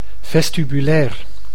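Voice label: "vestibulair", Dutch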